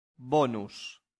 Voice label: "bonus", latin; santillana